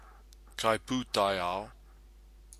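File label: "kaiputaiao", Maori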